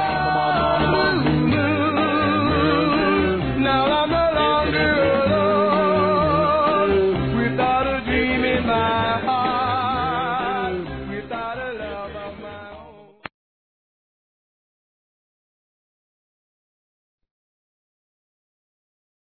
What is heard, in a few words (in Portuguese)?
FILHO M